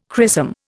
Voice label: "chrisom", Indian